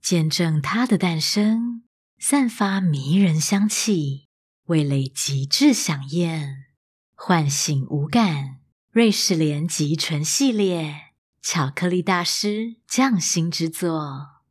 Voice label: corporate; female voice in Mandarin Chinese